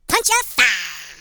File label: Firepunch, Hill Billy